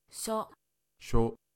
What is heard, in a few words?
"sho", Japanese